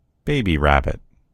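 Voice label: "rabbit baby", American English; gcompris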